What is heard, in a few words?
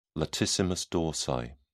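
"latissimus dorsi", British English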